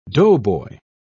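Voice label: "doughboy", British English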